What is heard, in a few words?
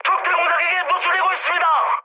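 Korean damaged aa mood high, speech; War Thunder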